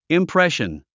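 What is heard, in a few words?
"impression", English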